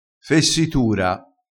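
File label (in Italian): "fessitura", vocabolario dialettale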